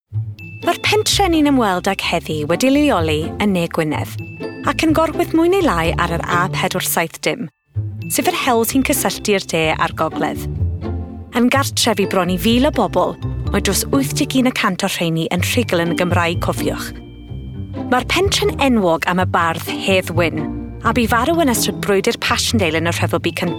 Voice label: welsh doco

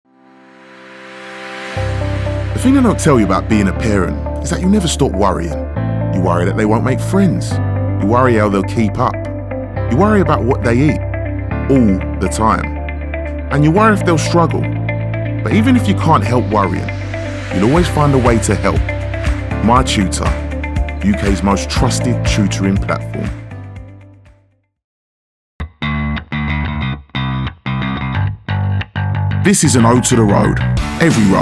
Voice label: VO, engaging

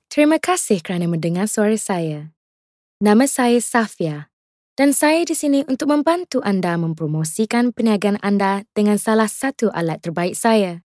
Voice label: Malay, neutral

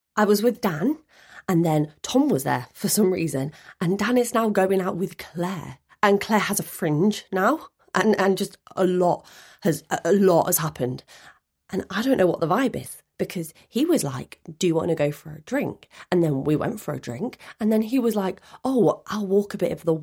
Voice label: Drama Voice

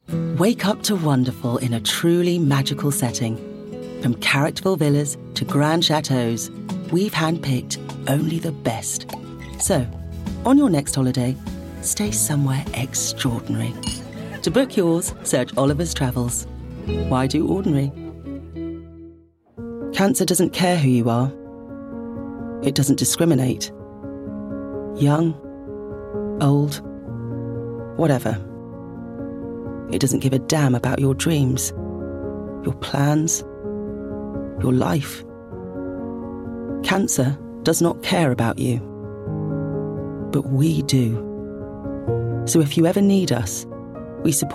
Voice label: commercial, 40s

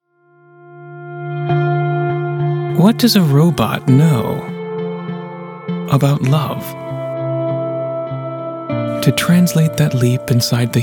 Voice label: Commercial, characterful

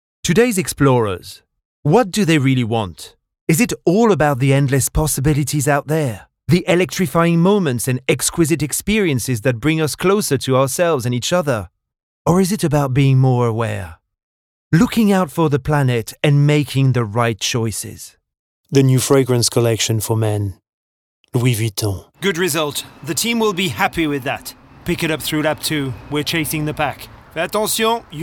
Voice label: Accented English; Accented English Reel 2025

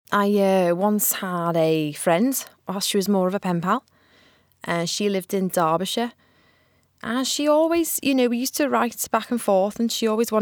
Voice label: Accent

